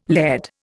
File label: "laird", Indian